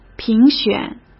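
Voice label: "评选", Mandarin Chinese; hsk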